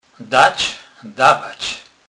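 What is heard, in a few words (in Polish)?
"dac", Kurpie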